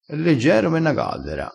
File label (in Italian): "gallera", crespinese